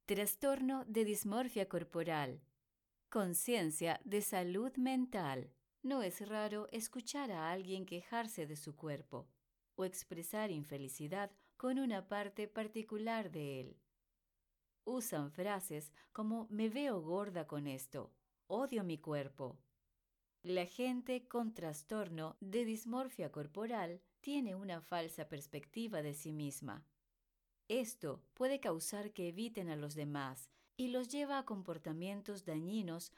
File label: Spanish (LA), Female